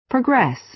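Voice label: "progress", American English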